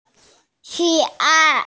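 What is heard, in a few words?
"hei1an4", Mandarin Chinese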